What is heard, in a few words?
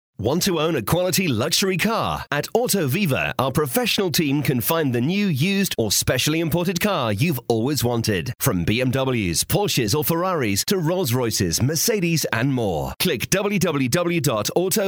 male